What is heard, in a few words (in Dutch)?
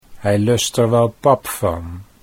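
hij luistert wel, Dutch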